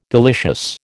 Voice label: "delicious", American